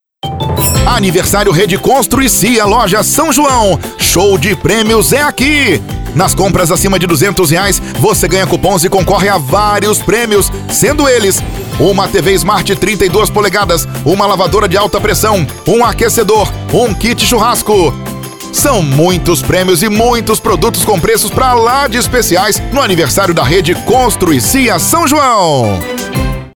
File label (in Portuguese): Spot Simples Aniversario; Rede Constru e Cia